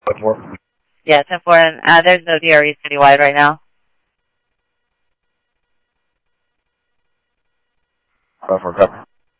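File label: Mesa Police Department, scanner audio; Mesa Simulcast; MESA PD 3 Red Mt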